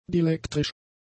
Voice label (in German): "dielektrisch", german; adjectives